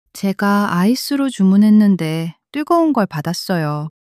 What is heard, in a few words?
sadness, monologue